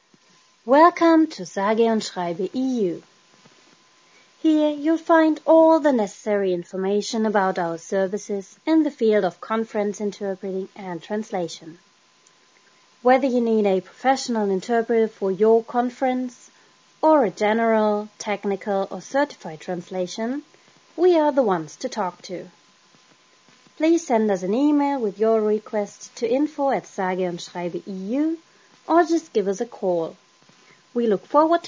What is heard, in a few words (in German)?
stimmprobe en